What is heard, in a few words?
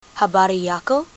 how are you, swahili